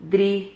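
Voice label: "dri", Spanish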